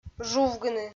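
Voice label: "жувгыны", Komi, female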